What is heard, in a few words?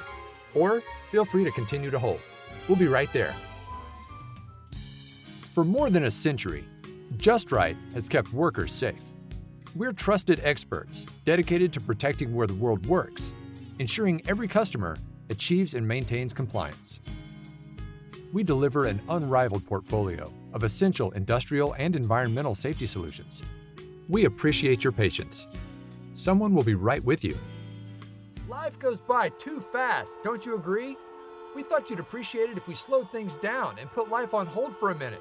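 male voice, IVR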